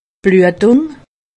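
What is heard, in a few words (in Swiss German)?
"bluetung", Alsatian; medecine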